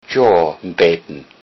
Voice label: yes a little, lowsaxon; Ja, 'n bäten